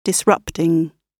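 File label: "disrupting", British English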